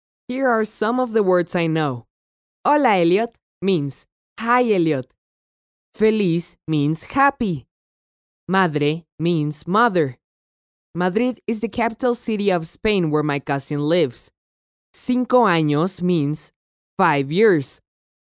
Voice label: child spanish english neutral voice locutor niño español neutro, Latin American child voice over; English child voices. Latin American accent; Recordings of children voiceovers